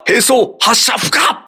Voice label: weapon destroyed, voice message, crew ships japanese